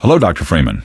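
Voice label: "hello doctor Freeman", male; npc; Garry's Mod; hl2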